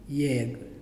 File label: "name", Muyuw; Lae Unitech